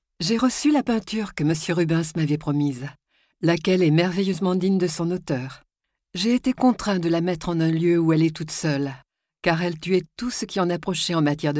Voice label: audioguide; Rubens Poussin

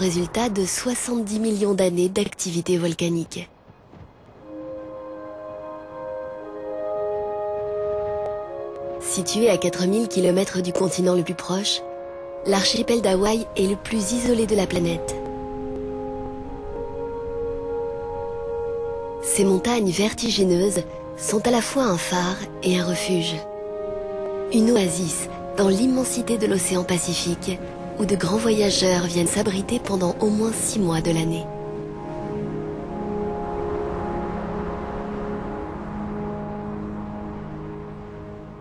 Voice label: Les volcans d'Hawai narration documentaire

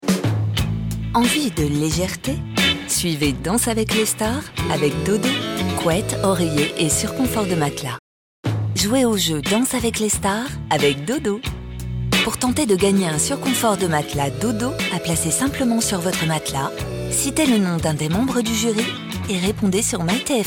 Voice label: billboard et module jeu sensuelle elegante; DODO

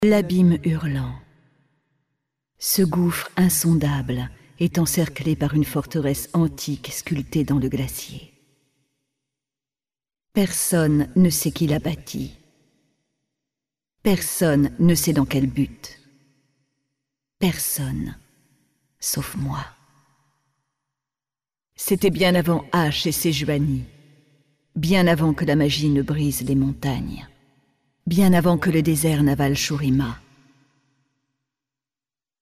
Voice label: LE RECIT

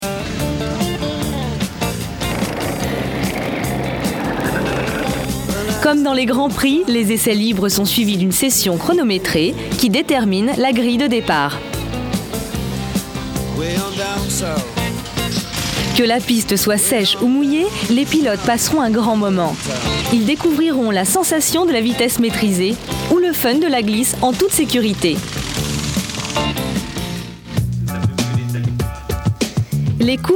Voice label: film institutionnel karting explicative souriante; Le racing Kart

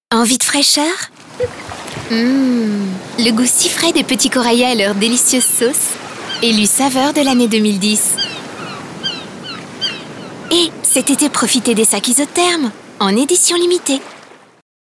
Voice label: PUB TV; Corayasauces

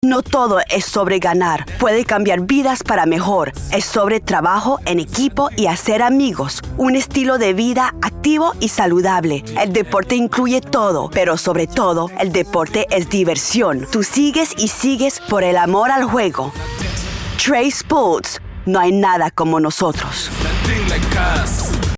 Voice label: Voix Espagnole; Trace Sports

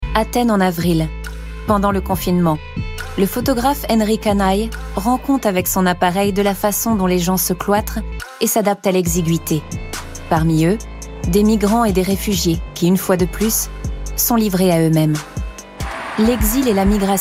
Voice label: NARRATION VF; ARTE; magculturel; migrants

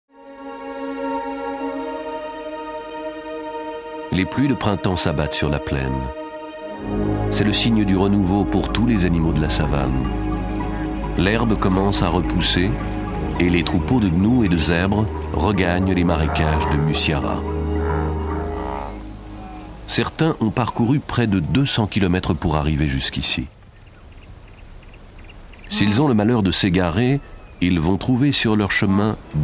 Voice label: Docu animalier

